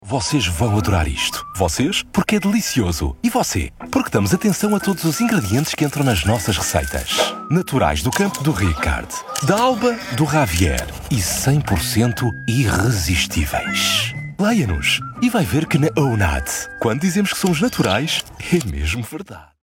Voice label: Portuguese voice Portuguese commercial